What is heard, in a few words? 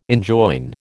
"enjoin", American